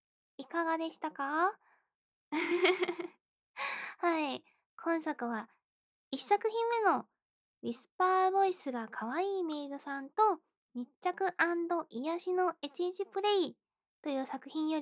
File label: Freetalk, ASMR; KU100; 幼なじみ巨乳処女JKに絞られまくる ～あまラブ初体験で彼氏SEXにドハまりする彼女～